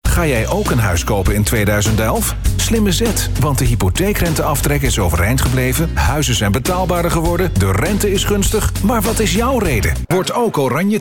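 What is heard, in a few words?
Dutch commercial; GoLocalise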